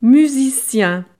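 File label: "musicien", French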